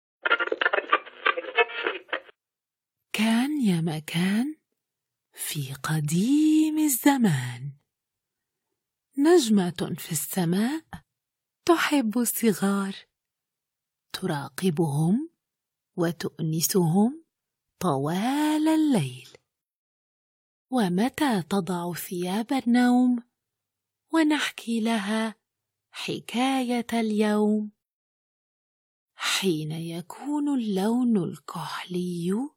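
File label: bed story, Kids content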